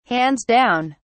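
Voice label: "hands down", British English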